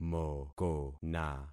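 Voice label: "mokona", concatenated syllables; Affixing experiment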